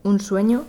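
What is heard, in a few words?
"un sueno", Spanish; gleitlaute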